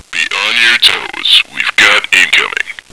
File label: incoming, radio male